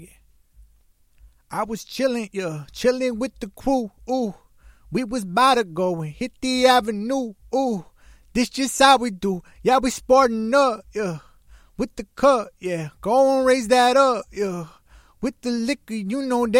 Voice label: acapella, HH 140 Cm